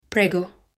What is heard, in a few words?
please in italian